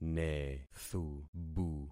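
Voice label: "nethubu", concatenated syllables; Affixing experiment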